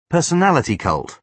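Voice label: "personality cult", British English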